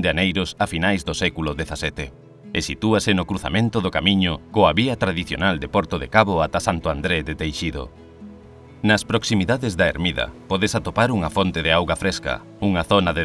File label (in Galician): audioguia reboredo; GAL